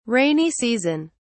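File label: "rainy season", British English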